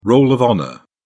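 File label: "roll of honour", English, British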